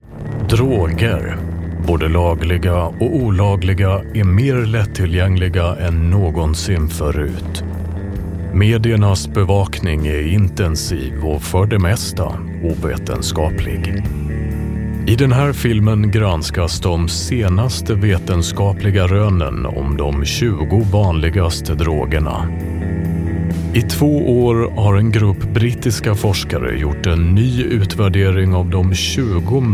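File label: Swedish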